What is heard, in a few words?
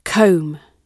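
"comb", British English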